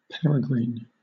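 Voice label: "peregrine", British English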